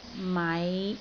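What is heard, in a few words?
"mai5", Cantonese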